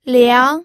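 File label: "liang", second tone, pinyin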